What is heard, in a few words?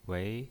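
"wei", pinyin voice, second tone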